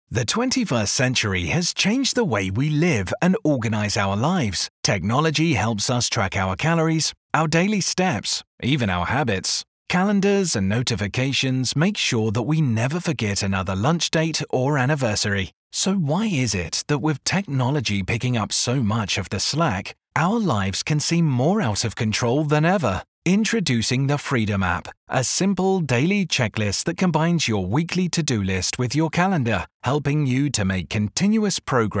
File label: english voice over Commercial Upbeat and Friendly